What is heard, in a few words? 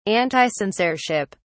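"anticensorship", English, British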